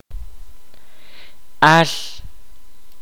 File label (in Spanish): "pesado que pesa", Kaqchikel